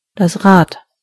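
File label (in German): "wheel", German; gcompris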